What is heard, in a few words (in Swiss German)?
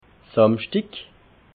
"samschdig", alsace